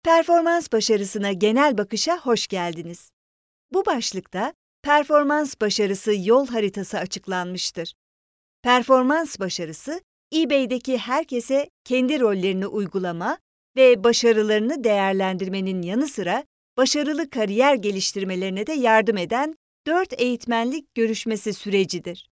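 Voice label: Turkish, female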